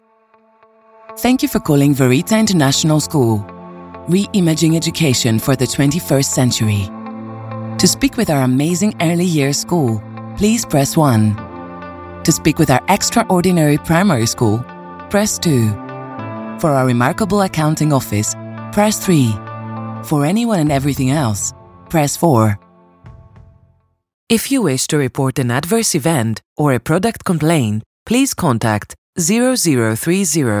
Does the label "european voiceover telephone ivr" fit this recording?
Yes